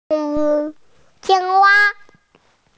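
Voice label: "qing wa" Chinese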